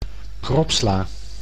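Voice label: "kropsla", Dutch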